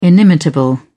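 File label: "inimitable", British English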